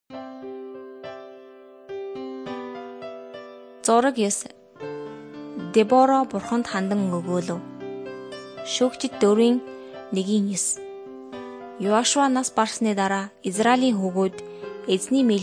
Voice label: mongolian